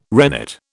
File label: "rennet", British